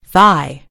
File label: "thigh", en GB; gcompris